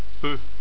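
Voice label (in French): "peu", French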